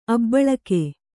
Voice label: "ಅಭಿಲೇಖ", Kannada

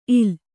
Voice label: "ಇಲ್ಲ", Kannada

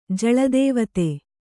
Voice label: "ಜಳದೇವತೆ", Kannada

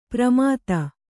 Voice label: "ಪ್ರಮಾತ", Kannada

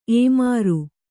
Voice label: "ಏಮಾರು", Kannada

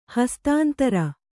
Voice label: "ಹಸ್ತಾಂತರ", Kannada

